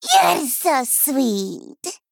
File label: Female Gnome Play